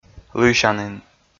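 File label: "лыйсянін", Komi, male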